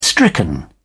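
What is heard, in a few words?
"stricken", English, British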